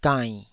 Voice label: "gainn3", Taiwanese